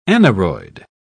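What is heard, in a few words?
"aneroid", British English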